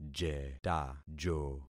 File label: "jetajo", concat syllables; Affixing experiment